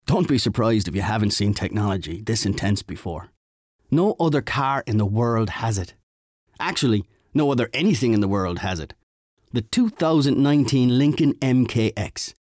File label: irish neutral; Lincoln